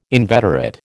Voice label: "inveterate", American